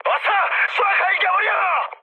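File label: Korean attack position mood high, speech; War Thunder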